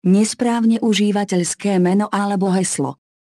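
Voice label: Username Or Password Incorrect, Slovak; OpenCore; AXEFIAudio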